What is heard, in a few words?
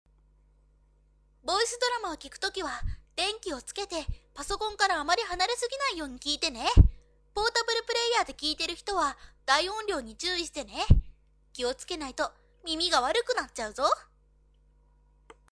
girl